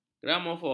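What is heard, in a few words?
"gramofon", Malay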